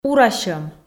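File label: "урасьӧм", Komi, female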